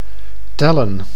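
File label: "tellen", Dutch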